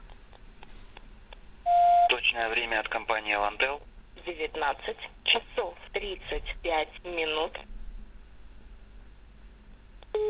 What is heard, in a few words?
Russian time service; Zagranica